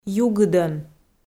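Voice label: "югыдӧн", Komi, female; kpv audio dict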